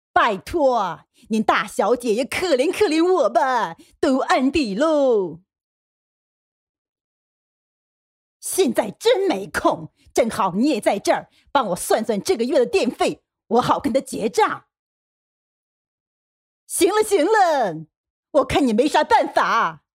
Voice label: 女 模仿 包租婆

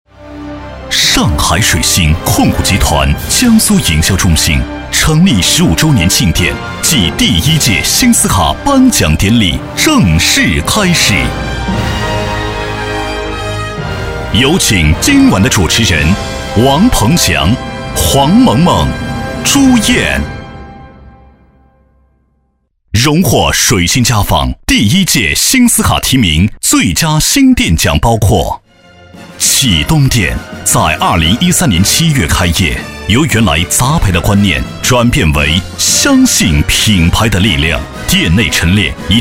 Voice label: 男 颁奖; 家纺; 水晶家纺

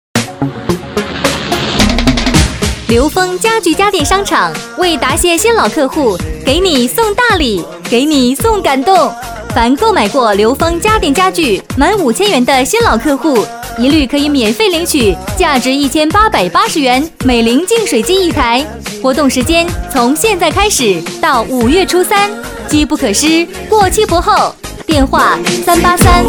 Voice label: 女 促销 家具家电商场

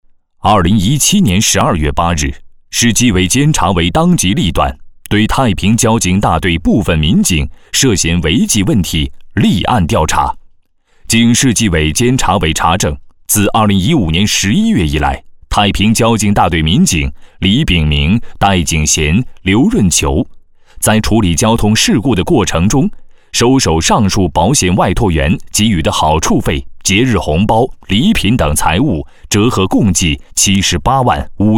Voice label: 男 专题 严肃风格; 政府廉政调查

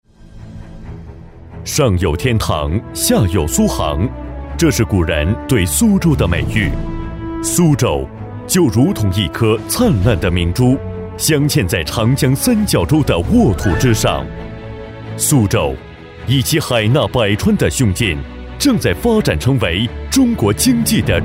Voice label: 男 专题 软件科技企业大气平稳

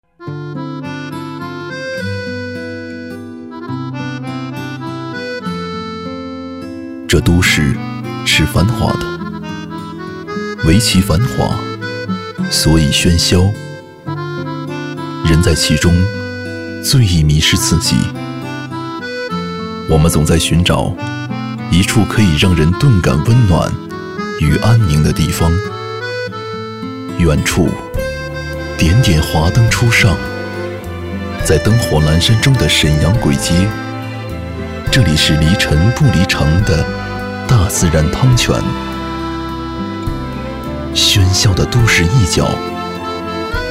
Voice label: 男 广告; 大自然汤泉

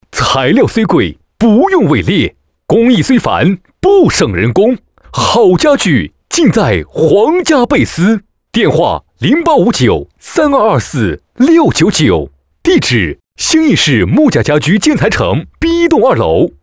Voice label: 男 促销 激情叫卖广告

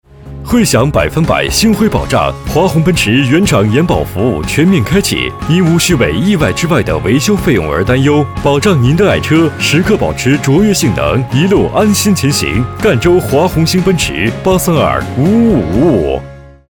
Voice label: 男 汽车广告; 奔驰汽车广告

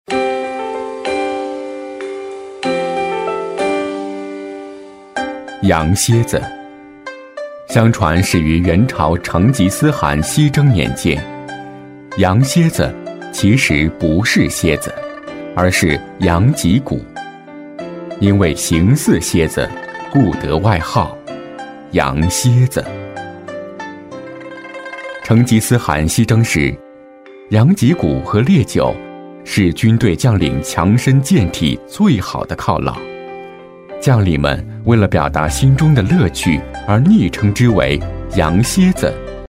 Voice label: 男 专题 羊蝎子专题

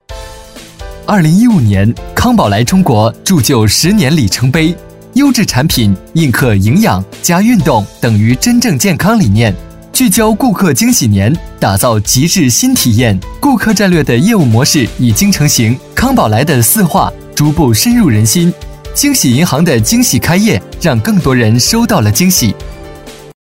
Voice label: 男 专题 年轻活力; 康宝莱

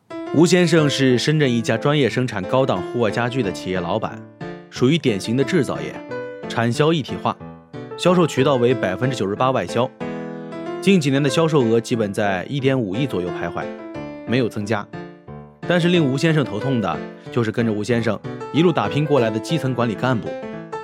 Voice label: 男 专题 口语化风格

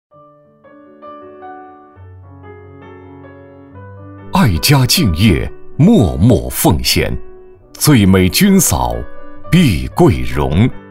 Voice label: 男 颁奖 人物介绍; 类似感动中国

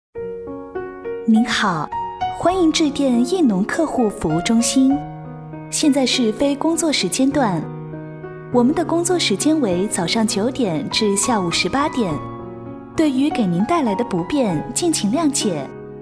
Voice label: 女 彩铃 客户服务中心; 益农

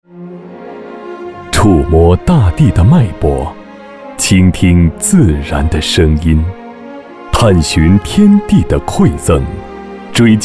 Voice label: 男 广告 中国食材

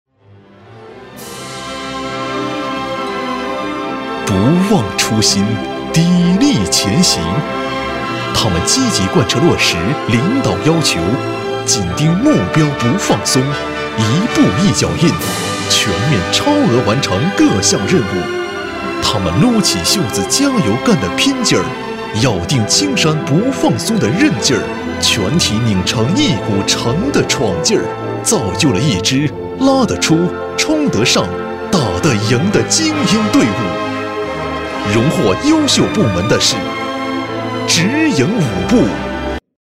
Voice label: 男 颁奖; 平安保险

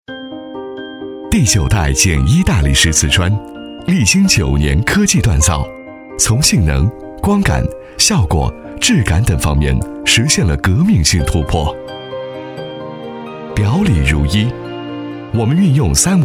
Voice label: 男 专题 大理石（大气 温和 品质）; 简一大理石

